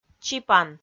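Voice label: "чипан", Komi-Zyrian, female; kpv audio dict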